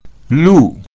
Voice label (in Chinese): "lu4", road